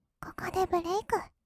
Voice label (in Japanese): 「ここでブレイク」, kawaii voice; 1200 kawaii voice!!; 色々なワンショット